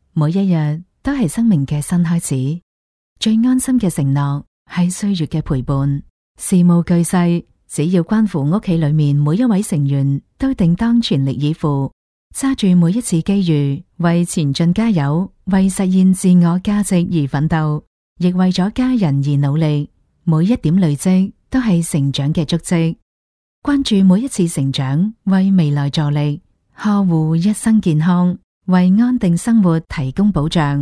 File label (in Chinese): 女【宣传】社会保障市民卡